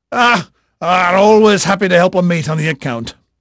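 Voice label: English male, voice; Pirates Of The Caribbean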